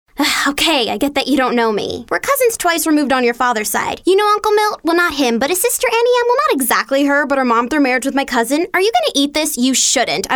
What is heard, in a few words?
kids animation